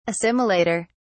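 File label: "assimilator", British English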